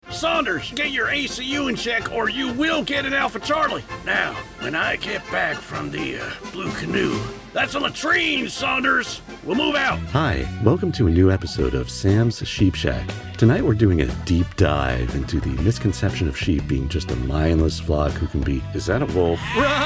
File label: Animation